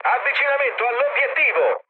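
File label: Italian near waypoint mood med, speech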